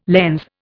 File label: "lens", Indian